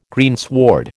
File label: "greensward", American